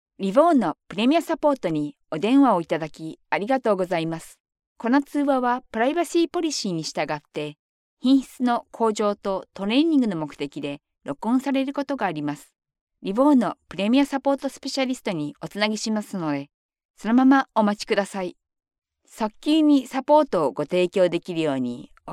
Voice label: female japanese voice over upbeat